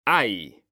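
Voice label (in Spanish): "hay", Spanish, male; mekanta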